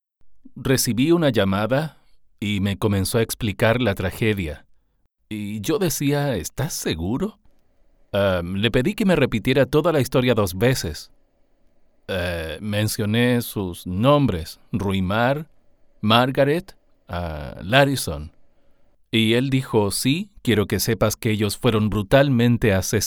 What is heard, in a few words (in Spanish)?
doblaje